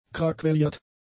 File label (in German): "kraquelliert", German; adjectives